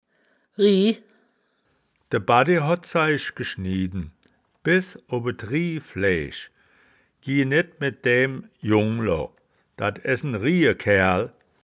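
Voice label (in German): "rih", wittlicher woerterbuch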